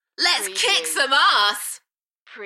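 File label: UK female, Enthusiastic, DJ vocals; Lets kick some arse; beanstalk audio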